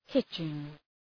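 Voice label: "hitching", English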